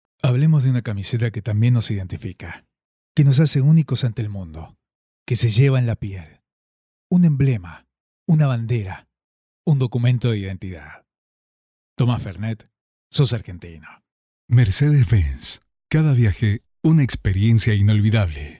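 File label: Spanish Latin America male voice over, narrative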